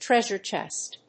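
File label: "Treasure Chest", English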